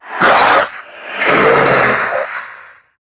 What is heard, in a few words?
Death, en; Zariman Wraith